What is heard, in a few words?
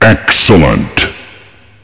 "excellent", vox